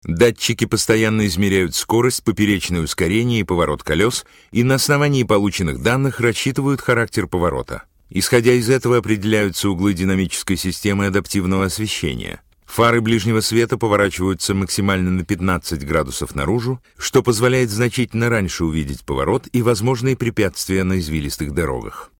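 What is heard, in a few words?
Russian narration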